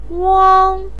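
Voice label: "wang1", Mandarin Chinese, pinyin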